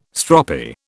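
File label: "stroppy", British English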